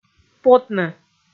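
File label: "потны", Komi, female; kpv audio dict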